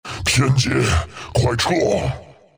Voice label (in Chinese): death, zh; Dota 2